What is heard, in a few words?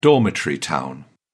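"dormitory town", British English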